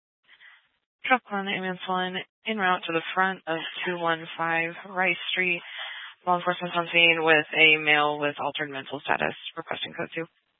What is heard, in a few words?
Fire Department Dispatch, scanner; Grand Junction Fire Department Dispatch